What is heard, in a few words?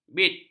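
"bit", Malay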